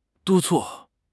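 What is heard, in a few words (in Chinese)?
"督促", Mandarin Chinese; HSK6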